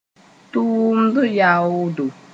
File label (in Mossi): "tʋʋmd yaoodo", moore; salary